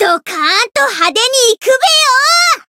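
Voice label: limitbreak, Japanese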